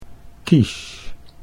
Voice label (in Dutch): "quiche", Dutch